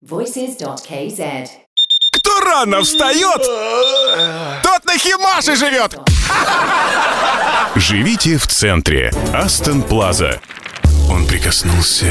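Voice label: Russian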